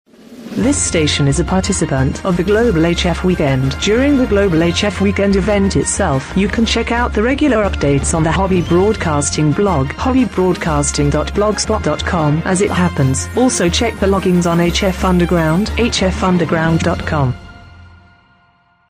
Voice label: PROMO GLOBAL HF WEEKEND female